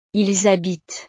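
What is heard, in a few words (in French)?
"ils habitent", French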